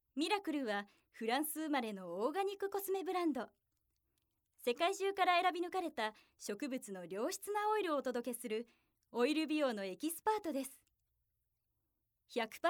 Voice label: narration